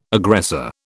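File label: "aggressor", British English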